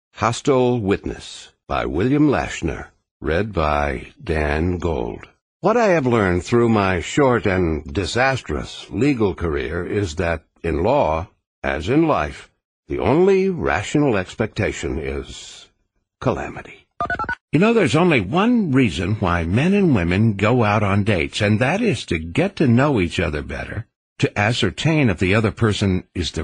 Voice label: AudioBooks, Voiceover